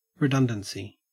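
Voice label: "redundancy", Australian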